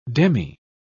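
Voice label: "demi-", British English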